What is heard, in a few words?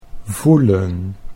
"voelen", Dutch